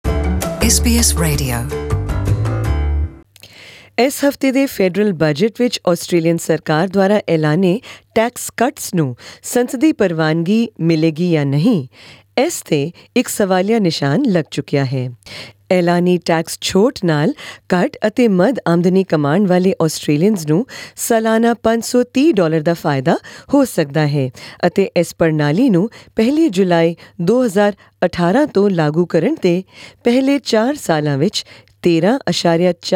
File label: punjabi; Hanson demands drastic reduction in migration intake; SBS Punjabi